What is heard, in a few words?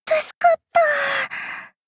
shy